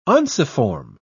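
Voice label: "unciform", British English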